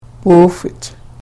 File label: "puufich", Karuk